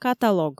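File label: "каталог", Komi, female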